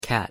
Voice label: pronunciation en cat